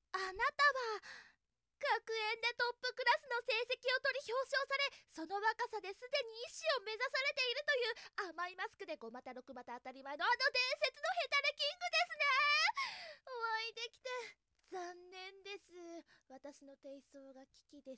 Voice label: genki, voice